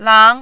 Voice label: "lang2", mandarin

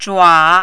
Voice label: "zhua3", mandarin

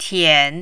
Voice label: "tian3", mandarin

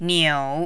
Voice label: "niu3", mandarin

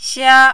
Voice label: "xia1", Mandarin

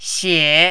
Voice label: "xie3", mandarin

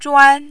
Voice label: "zhuan1", mandarin

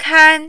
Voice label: "kan1", mandarin

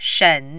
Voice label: "shen3", Mandarin

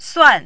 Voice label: "suan4", Mandarin

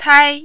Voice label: "tai1", Mandarin

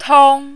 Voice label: "tong1", Mandarin

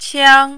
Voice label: "qiang1", mandarin

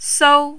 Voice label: "sou1", Mandarin

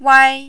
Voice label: "wai1", Mandarin

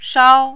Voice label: "shao1", mandarin

